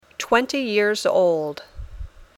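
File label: "twenty years old", English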